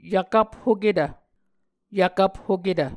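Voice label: "yvkvphoketv", Muscogee word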